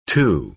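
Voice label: "too", American English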